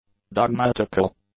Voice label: "dogmatical", English; adjectives

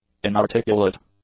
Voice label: "inarticulate", English; adjectives

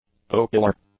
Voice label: "ocular", english; adjectives

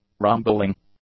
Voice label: "rumbling", English; nouns

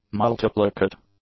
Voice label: "multiplicate", English; adjectives

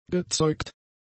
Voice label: "gezeugt", German; adjectives

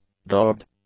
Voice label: "dogged", English; adjectives

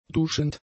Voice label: "duschend", german; adjectives

